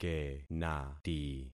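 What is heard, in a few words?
"kenati", concatenated syllables; Affixing experiment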